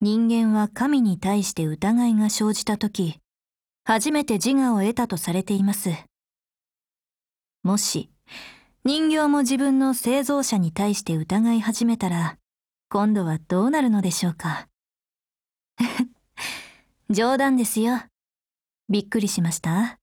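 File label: DIALOGUE, Japanese; RPK16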